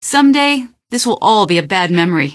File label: question, female; npc; Garry's Mod; hl2